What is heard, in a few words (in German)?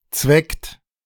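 "zweckt", German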